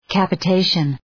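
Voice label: "capitation", English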